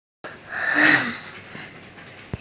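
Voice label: "dong1", Mandarin Chinese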